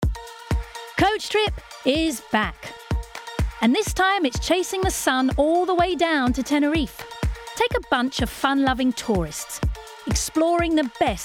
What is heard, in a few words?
doco